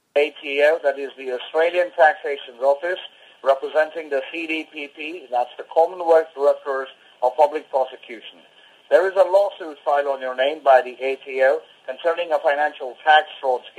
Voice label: ATO scam phone call; What an ATO scam phone call sounds like